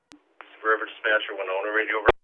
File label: Radio